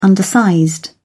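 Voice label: "undersized", English, British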